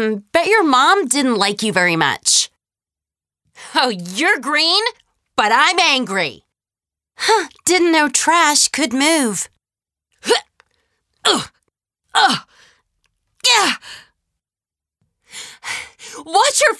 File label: Recruit Female